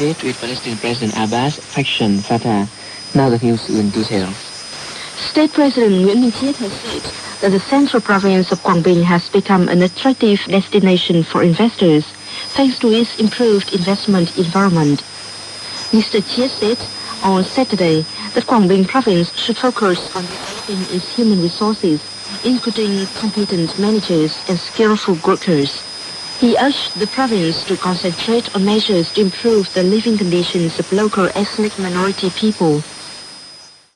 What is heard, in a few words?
Voice of Vietnam 5 955MHz AM, shortwave radio; icom r20